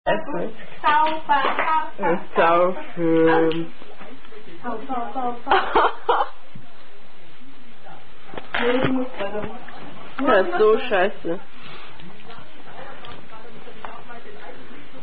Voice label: Unknown German Dice Drinking Game Played By Young Girls; Trinkspiel